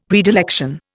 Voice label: "predilection", Indian; Dictionary